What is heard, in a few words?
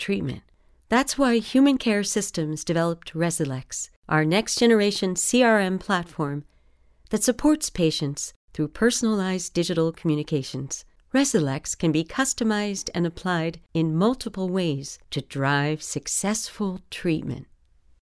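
Medical, Voice Over; Cutting Edge Medical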